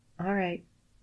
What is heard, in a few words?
girl "alright"; Inseminator